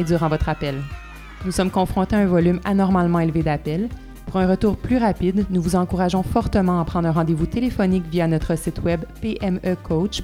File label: COVID-19 phone messages